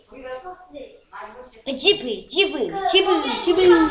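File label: "zip", spoken word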